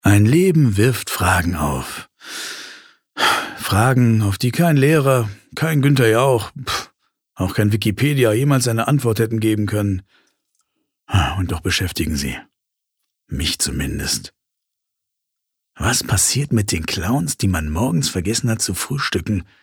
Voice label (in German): Schauspiel, Variabel